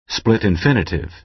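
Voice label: "split infinitive", American English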